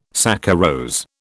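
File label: "saccharose", British English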